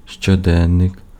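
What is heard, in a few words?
Ukrainian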